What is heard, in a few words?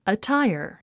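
"attire", English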